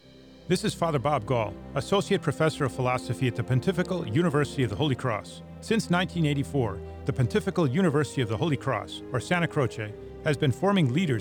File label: Radio Spot